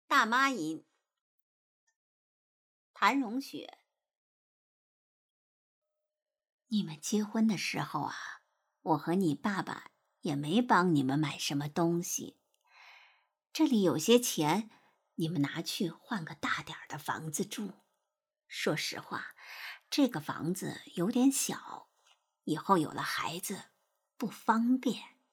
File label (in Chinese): 大妈音